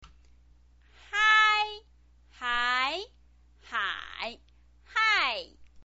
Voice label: "hai", chinese pinyin